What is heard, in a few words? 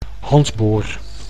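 "handboor", Dutch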